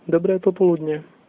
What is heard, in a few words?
good afternoon, slovak